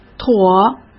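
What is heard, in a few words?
"妥", Mandarin Chinese; hsk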